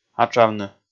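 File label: "атшавны", Komi, male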